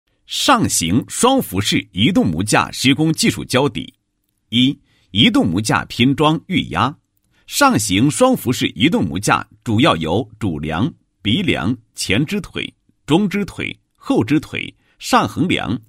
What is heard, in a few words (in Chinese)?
男 项目解说 施工技术交底; 移动模架施工技术交底